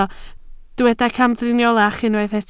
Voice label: "camdriniolach", Welsh